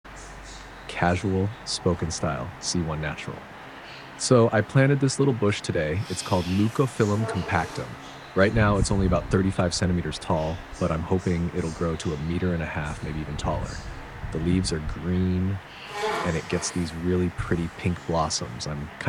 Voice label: Casual spoken style